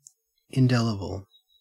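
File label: "indelible", Australian English; pronunciations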